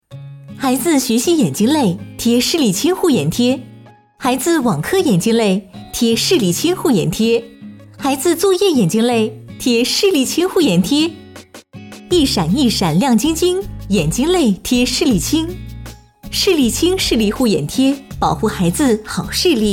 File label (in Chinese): 女 广告 清新欢快 护眼贴; 士力清护眼贴